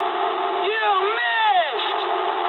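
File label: bomb failure mood medium, speech English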